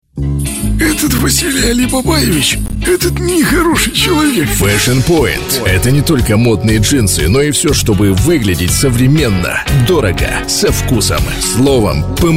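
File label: Russian, male; lektoring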